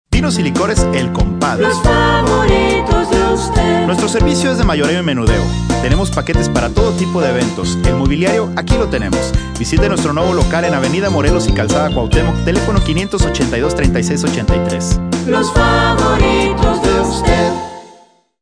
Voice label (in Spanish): comercial; el compadre